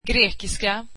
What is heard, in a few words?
"Greek", swedish